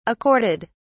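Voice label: "accorded", British English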